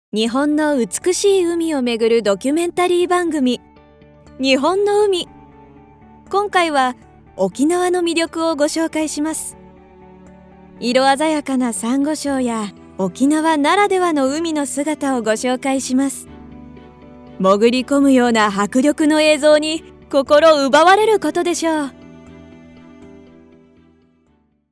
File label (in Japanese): ドキュメント